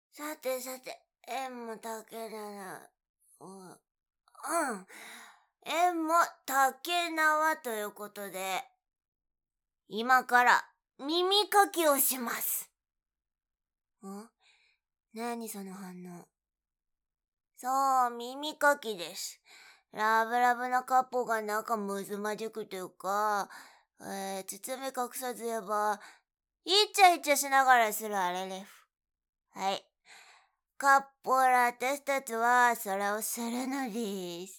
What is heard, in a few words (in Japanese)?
耳かき SEなし; お酒のすゝめ; 耳かきさせてくれなきゃ、やだヤダ嫌だ！